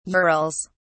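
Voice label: "urals", British English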